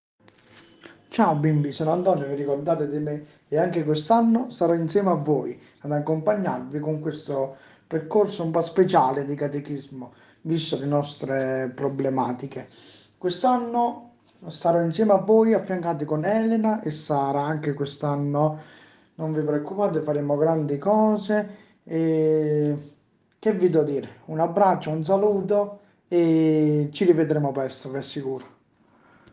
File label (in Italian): vocale saluto; seconda elementare